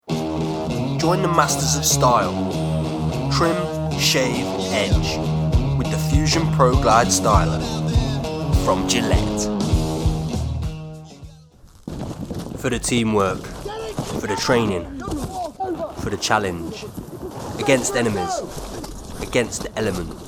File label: Voice Commercial